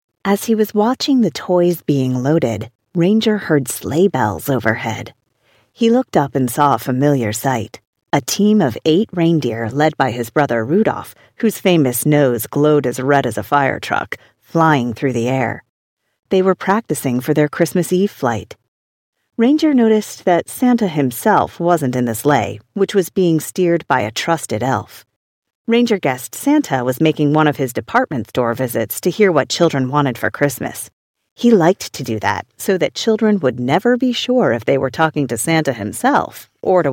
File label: American audiobook, Corporate American Female Voiceover